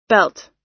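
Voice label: "belt", US English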